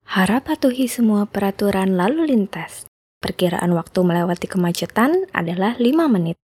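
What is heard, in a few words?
Indonesian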